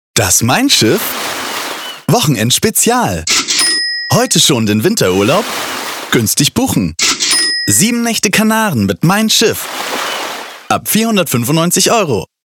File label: Werbung, advertising, engaging, pleasing, Commercial, German; TUI; Mein-Schiff